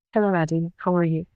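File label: Voice Acting es, female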